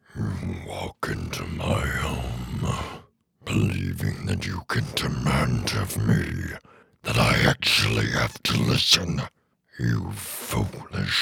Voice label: Gutteral Eldritch Horror